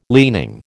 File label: "leaning", American